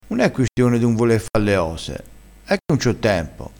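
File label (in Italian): "quistione", dialettale, crespinese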